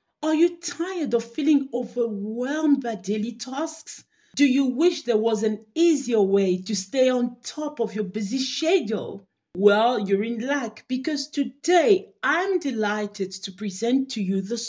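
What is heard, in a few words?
Lingala Accented English; GoLocalise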